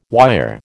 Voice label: "wire", American